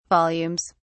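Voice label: "volumes", British English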